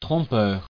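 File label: "trompeur", French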